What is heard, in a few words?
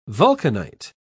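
"vulcanite", British English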